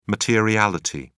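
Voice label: "materiality", British English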